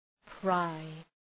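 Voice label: "pry", English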